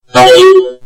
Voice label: robo mario death